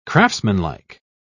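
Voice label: "craftsmanlike", British English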